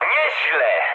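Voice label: trick mood med, speech Polish; War Thunder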